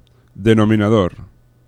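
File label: "denominador", Spanish; laboratori fonetica; paraules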